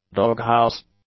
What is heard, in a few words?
"doghouse", English